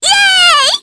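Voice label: Vox Happy Japanese; Reina; kingsraid audio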